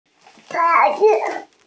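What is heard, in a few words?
"pan2", Mandarin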